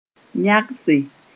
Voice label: "yãgse", moore

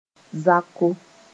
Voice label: "zako", moore

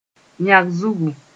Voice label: "yãg-zugu", moore; sinusitis

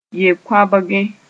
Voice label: "ye koabgẽ", moore

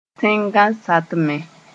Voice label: "tẽngã satmẽ", Moore

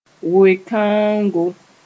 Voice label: "we-kãoongo", moore

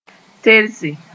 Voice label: "terse", Moore

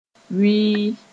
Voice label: "wɩɩ", moore

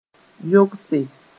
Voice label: "yogse", moore

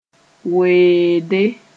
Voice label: "weende", moore

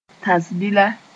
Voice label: "tãsbila", moore; bead of Muslim chaplet

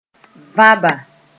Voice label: "vãba", moore

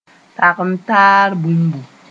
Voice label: "tagem-n-taar bũmbu", moore

